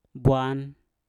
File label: "bwan", Mpur; lubang